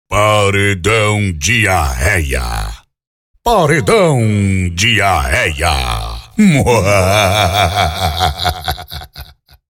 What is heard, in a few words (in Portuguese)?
vinheta locutor voz impacto; vinheta pra paredao